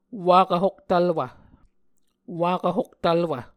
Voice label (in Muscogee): "wakv hoktvlwv", Muscogee